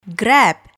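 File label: "grab", English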